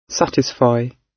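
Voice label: "satisfy", British English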